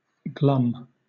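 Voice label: "glum", British English; pronunciations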